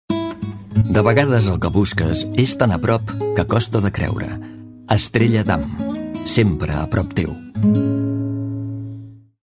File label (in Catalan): locució publi català